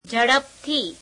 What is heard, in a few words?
gujarati quickly; ઝડપથી [jheḍepetheī]